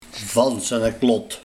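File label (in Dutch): "Va zenne klot", dialect; Buiten westen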